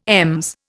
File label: "EMS", Indian